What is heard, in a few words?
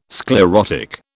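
"sclerotic", British English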